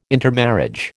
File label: "intermarriage", American